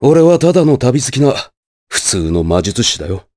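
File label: vox dialogue, voices, Japanese; Nicx; kings raid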